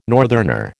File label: "northerner", American